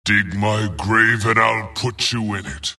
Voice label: wraith death; dota; skeleton king